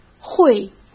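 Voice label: "会", Mandarin Chinese; chinese flashcards; hsk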